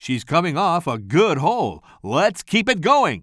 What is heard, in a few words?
commentator